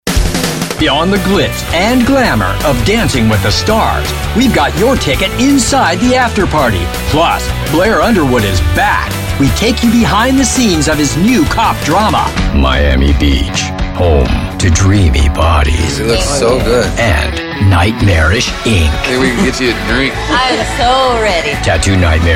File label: promo